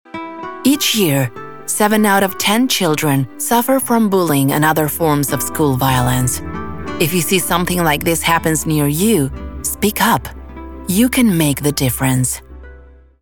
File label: Narrator Serious EN; Narradora Serio